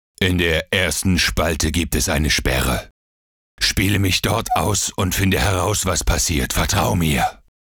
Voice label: monster de